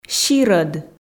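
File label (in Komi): "сирӧд", Komi, female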